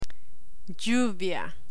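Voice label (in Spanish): "lluvia", Spanish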